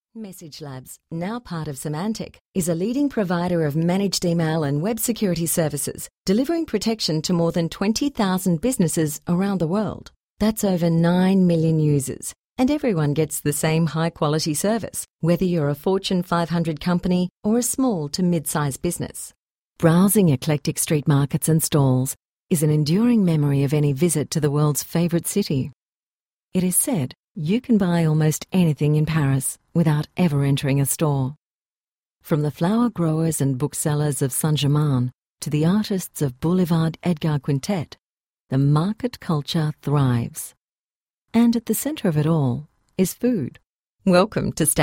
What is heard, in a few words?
Australian Female